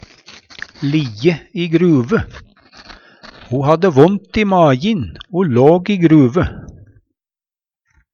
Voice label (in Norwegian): liggje i gruve, Numedalsmål; liggje med ansiktet ned; Uttrykk